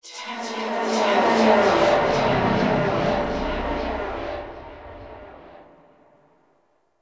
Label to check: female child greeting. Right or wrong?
Wrong